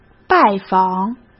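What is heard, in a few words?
"拜访", Mandarin Chinese; hsk; chinese-flashcards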